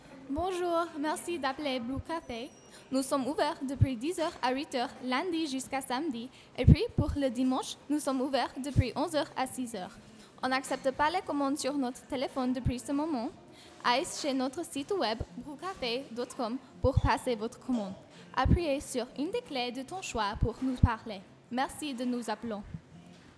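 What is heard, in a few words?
french, female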